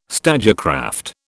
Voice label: "stagecraft", British